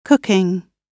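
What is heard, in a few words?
"cooking", British English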